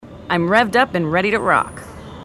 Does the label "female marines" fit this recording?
Yes